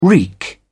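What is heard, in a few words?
"reek", English, British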